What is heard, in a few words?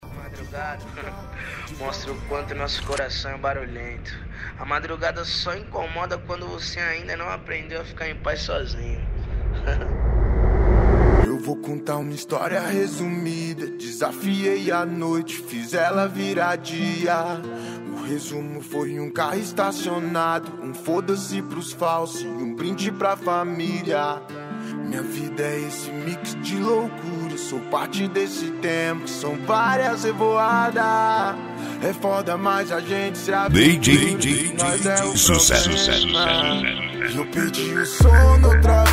rap